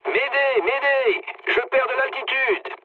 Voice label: aircraft destroyed mood low, speech fr

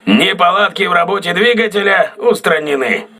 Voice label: voice message engine repaired, crew ships russian

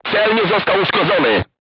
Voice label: voice message armor not pierce, crew tanks polish

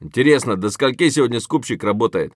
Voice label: mutter; Escape from Tarkov; scav5

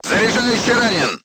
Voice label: voice message charger wounded, crew tanks russian

